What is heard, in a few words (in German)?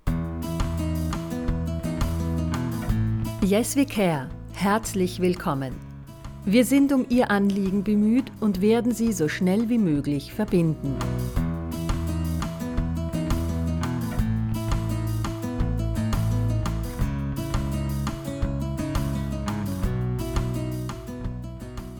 Text vor melden mit Musik